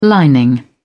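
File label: "lining", British English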